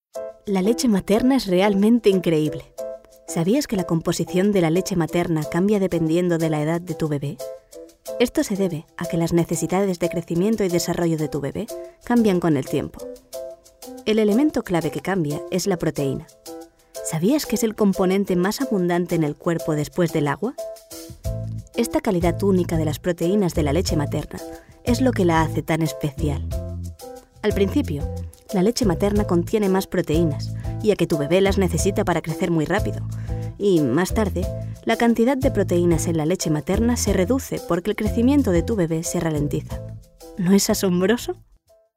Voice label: female spanish baby comercial